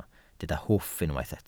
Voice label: "hwff" Welsh

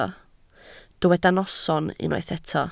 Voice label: "noson" Welsh

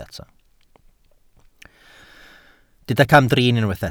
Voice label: "camdrin", Welsh

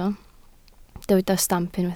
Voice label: "stamp", Welsh

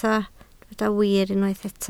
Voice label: "wir", Welsh

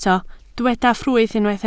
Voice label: "ffrwyth", Welsh

